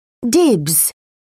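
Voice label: "dibs", British English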